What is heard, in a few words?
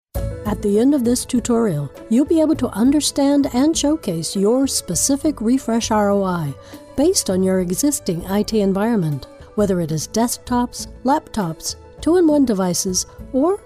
Business Narration; T+L Voices LLC